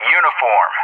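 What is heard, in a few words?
"Uniform", English; JTAC; Alphabet; DCS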